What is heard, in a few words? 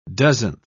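"dozenth", British English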